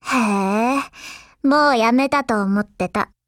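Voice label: HELLO, Japanese; XM8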